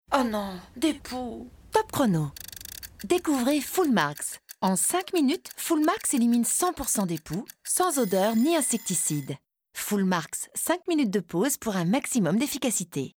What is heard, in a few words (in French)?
maman gentille, voix off, comédienne; Spot TV; Full Marks